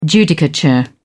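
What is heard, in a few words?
"judicature", British English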